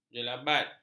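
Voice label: "jelebat", Malay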